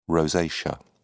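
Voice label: "rosacea", British English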